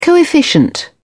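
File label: "coefficient", English, British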